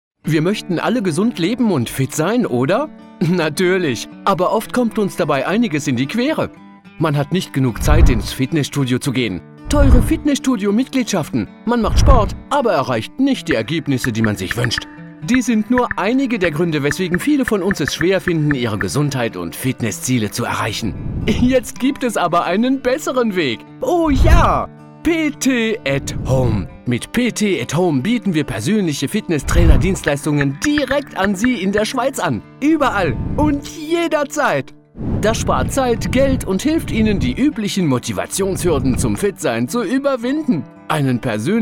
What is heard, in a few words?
German commercial